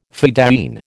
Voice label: "fedayeen", American

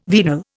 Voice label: "venal", Indian

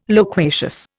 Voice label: "loquacious", Indian

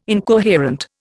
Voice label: "incoherent", Indian

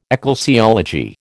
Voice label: "ecclesiology", American

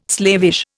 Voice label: "slavish", Indian

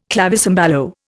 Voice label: "clavicembalo", Indian

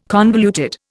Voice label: "convoluted", Indian

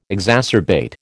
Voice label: "exacerbate", American

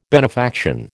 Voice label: "benefaction", American

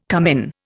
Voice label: "cummin", Indian

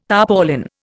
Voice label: "tarpaulin", Indian

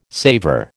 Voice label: "savour", American

